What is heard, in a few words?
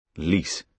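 "lease", British English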